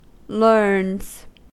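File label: "learns", US English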